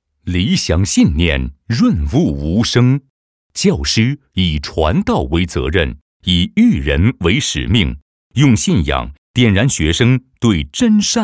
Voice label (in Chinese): 中国梦 劳动美 的解读解说